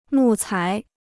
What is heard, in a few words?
"木材", Chinese